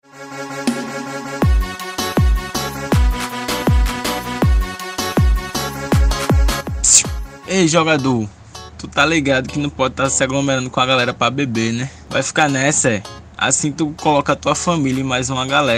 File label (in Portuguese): SPOT HOMENS NOS BARES RISCOS E CUIDADOS